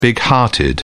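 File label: "big hearted", English, British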